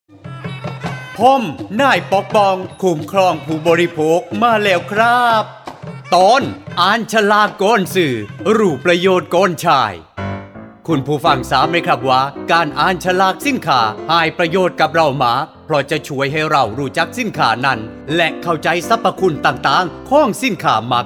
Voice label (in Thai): สปอตวิทยุ ภาคใต้; สื่อประชาสัมพันธ์; สคบ.; สำนักงานคณะกรรมการคุ้มครองผู้บริโภค